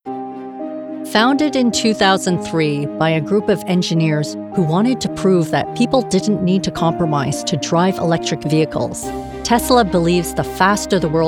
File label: CORPORATE; TESLA